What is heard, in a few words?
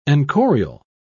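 "enchorial", British English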